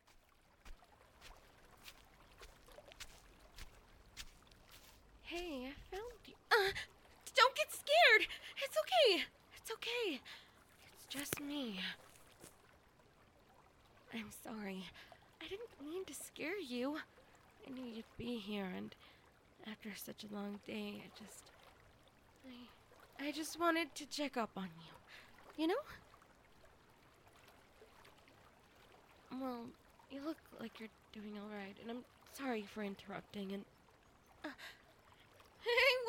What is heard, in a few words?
Positive Message, asmr